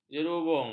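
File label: "jerubung", Malay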